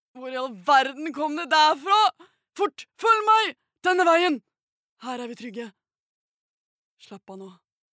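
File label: no dub character, Norwegian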